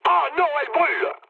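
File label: French on fire mood low; War Thunder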